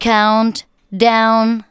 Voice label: "COUNTDOWN", SPOKEN; Techno Trance Essentials

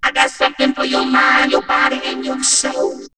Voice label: Vocoder Your Body; X-Static Goldmine

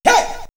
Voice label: "Hey", house & rap vocals; X-Static Goldmine

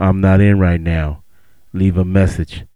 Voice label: "leave a me", HipHop Vox

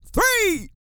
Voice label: COUNT, Vocal Planet, R&B & Dance, COUNTING; ILIO

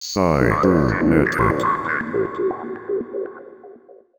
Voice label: Cybernetic, Robotic Vox; Zero-G Club Techno

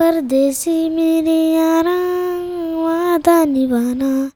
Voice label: CHILD VX, Indian Traditions; USB Soundscan vol.45; AKAI

